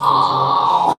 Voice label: "WILD CAT", SHOUTS; Best Service; Hyper Dance

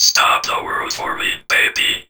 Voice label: STOP WORLD, Funky Vocals; USB Soundscan vol.18; AKAI; COMPUTER

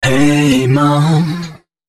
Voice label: male d# 130, short hooks, Dance Voice; Mutekki Media; Dance Ultra Voice VOL-1

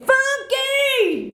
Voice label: "FUUNKYY", SHOUTS; Best Service; Hyper Dance

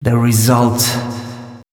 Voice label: male, Dance spoken hooks

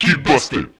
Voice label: Male FX Vocals; Houseworx

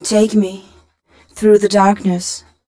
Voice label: fem spo, Vocals

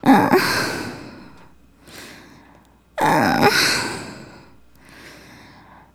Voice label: Excited, Hip-Hop and RnB Vocals

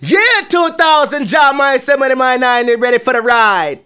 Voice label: "Yeah", Vocals Male; Sonic Foundry (Sony Creative Software); World Pop

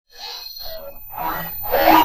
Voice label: VOICE, VOICE-FX

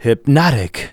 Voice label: "hypnotic", spoken; Techno Trance Essentials

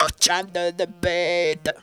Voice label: "to the beat", Funky Vocals; USB Soundscan vol.18; AKAI

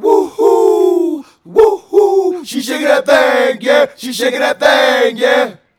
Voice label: Male Vocal

